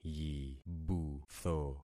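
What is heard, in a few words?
"yibutho", concatenated syllables; Affixing experiment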